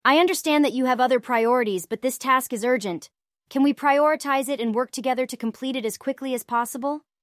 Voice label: synthesized audio